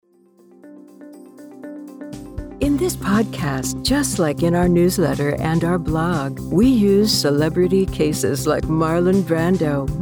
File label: PODCAST WITH MUSIC